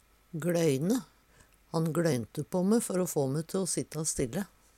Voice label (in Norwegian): "gLøyne", Numedalsmål; hardt blikk